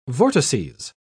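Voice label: "vortices", British English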